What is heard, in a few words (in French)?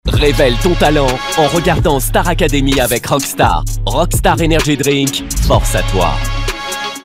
jeune rebel, comédien voix off parrainage; ROCKSTAR ENERGY DRINK jeune, un brin rebel